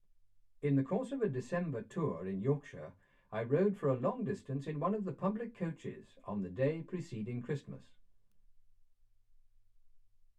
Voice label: male speech english